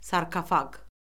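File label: "sarkofaq", Azerbaijani; Orfoqrafiya Lüğəti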